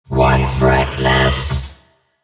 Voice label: 1 frag left, announcer robotic